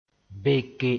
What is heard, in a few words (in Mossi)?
"beke", moore